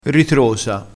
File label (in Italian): "ritrosa", crespinese